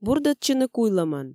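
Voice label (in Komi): "бурдӧдчыны куйлӧмӧн", Komi, female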